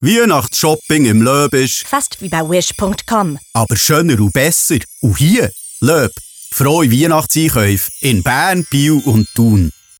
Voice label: Radiospot; LOEB; Wish